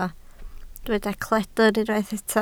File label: "cledr", Welsh